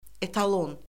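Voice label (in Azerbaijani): "etalon", Azerbaijani; Orfoqrafiya Lüğəti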